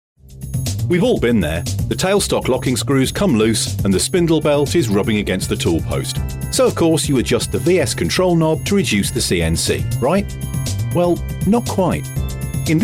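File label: English British, male, e learning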